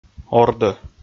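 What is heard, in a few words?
"ордӧ", Komi, male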